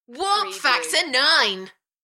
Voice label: UK female, Warp factor nine, Enthusiastic; beanstalk audio

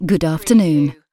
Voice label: UK female good afternoon; lynne publishing

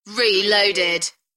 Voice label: "Reloaded", UK female; beanstalk audio

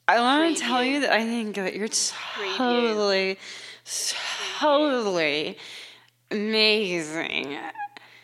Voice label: Female Drunk Talk

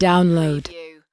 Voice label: US female, "download"; lynne publishing

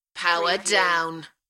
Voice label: UK female; beanstalk-audio

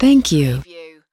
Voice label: US female thank you; lynne publishing

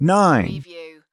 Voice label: "9", US male; lynne publishing

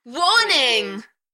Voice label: UK female, Warning, Enthusiastic; Tech / Sci-fi; beanstalk audio

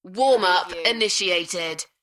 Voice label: UK female, Warm up initiated; beanstalk audio

